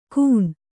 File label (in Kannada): "ಖೂನ", Kannada